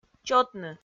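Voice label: "чотны", Komi-Zyrian, female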